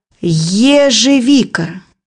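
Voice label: "blackberry", Russian; bukvar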